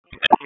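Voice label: FIRE Operations, p25; FIRE OPS 4; wake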